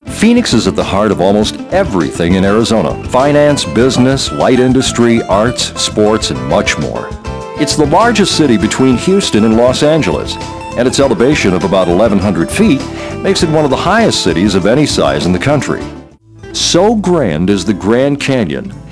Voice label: Narration, Male voices

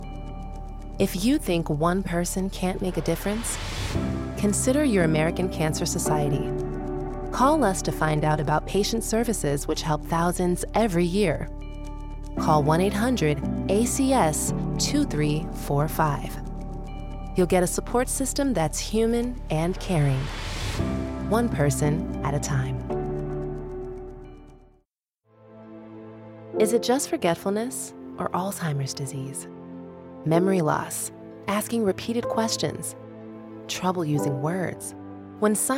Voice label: Narration, Female voices

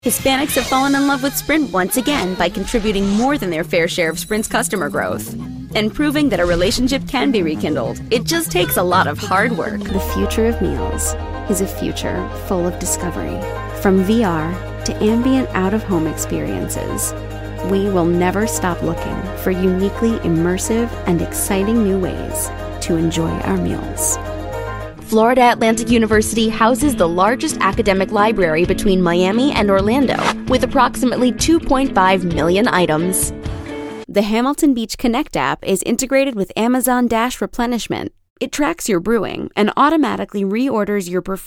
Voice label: Explainer, Female voices

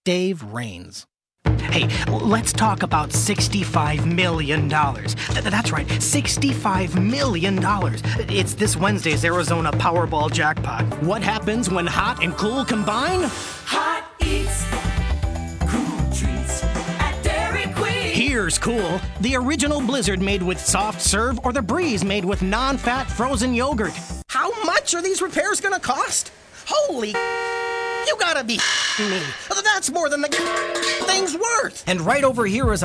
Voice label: Commercial, Male voices

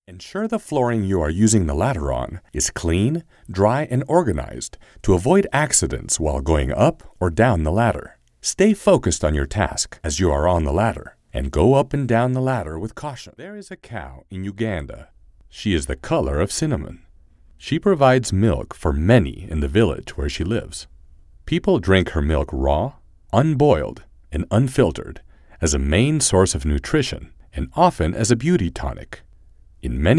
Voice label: English Narration, Male voices

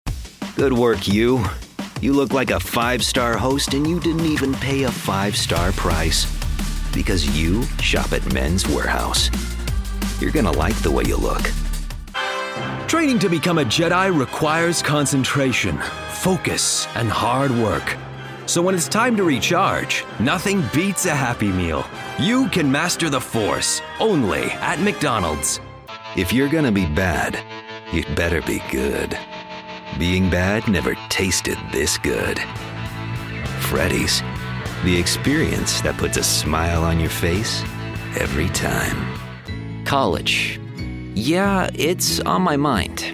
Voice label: Commercial, Male voices

